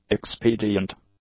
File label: "expedient", english; adjectives